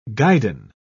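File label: "guidon", British English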